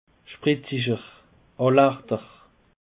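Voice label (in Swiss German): "spretziger", Alsatian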